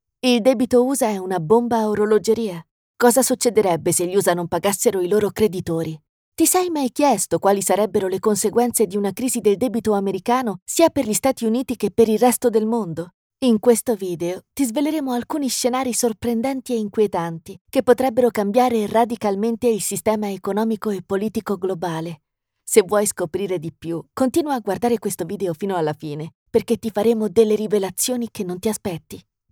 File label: italian narration